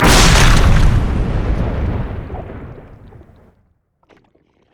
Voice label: pain; LoL; trundle

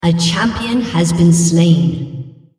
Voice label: female On Champion Kill Hero

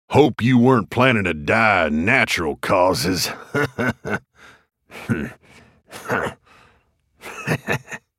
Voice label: taunt, en US; Graves; Character Voices - English

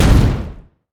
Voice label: pirate parrrley oh; LoL; gangplank

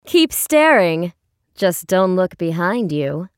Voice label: taunt, en US; Ashe

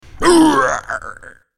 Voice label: Pirate dying

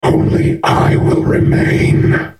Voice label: attack, en US; Urgot

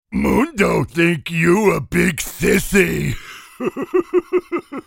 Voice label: taunt, en US; DrMundo

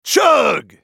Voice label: spell cast effort; Brolaf; Character Voices - English

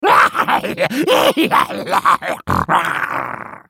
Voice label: ultimate effort; HyenaWarwick

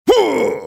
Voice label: effort, en US; Udyr

